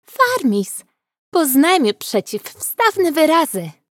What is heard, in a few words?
Teenager Voice